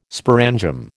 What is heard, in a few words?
"sporangium", American